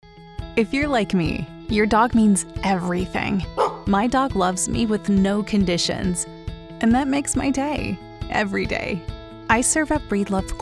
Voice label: Commercial Warm Dog, female